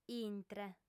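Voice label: "intre", Sardinian, female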